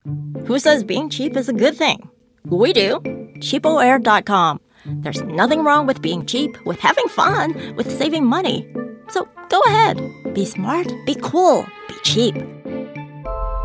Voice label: VO Commercial